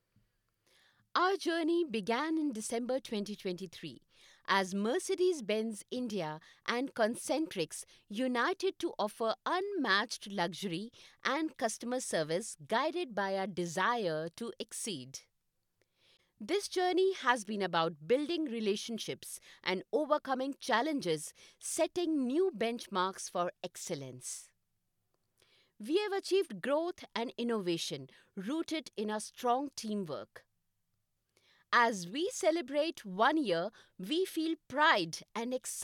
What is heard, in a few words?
english indian explainer videos